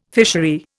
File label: "fishery", Indian